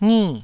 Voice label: "ngi4", taiwanese syllables